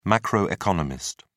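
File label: "macroeconomist", British English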